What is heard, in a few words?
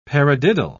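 "paradiddle", British English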